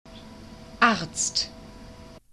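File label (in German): "arzt", German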